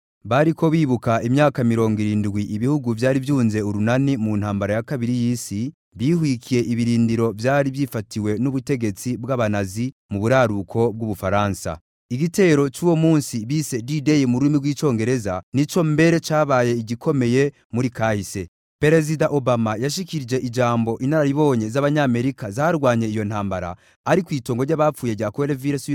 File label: KIRUNDI Male